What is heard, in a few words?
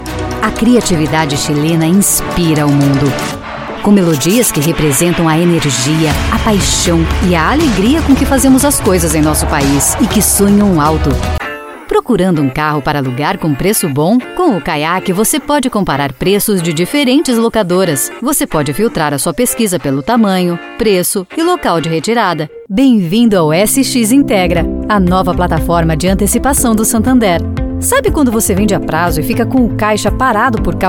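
General, Brazilian Portuguese; voice demos; GoLocalise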